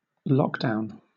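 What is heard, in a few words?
"lockdown", British English; pronunciations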